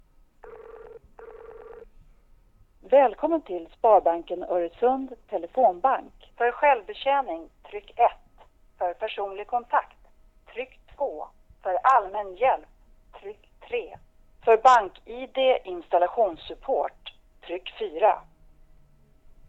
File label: htc one voice recording